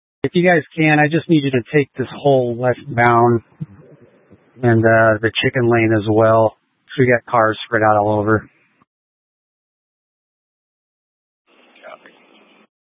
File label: Fire Departments Mesa Simulcast; TOPAZ